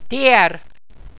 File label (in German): "tier", walser